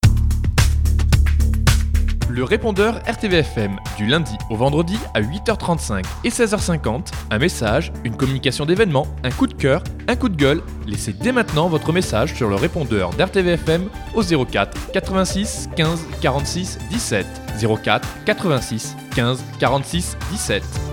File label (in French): Extro le répondeur; Quartier-Libre; Repondeur-RTVFM